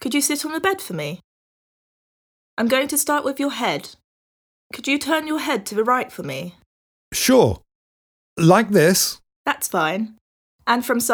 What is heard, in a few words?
Conversation; Bedside Manner Beginner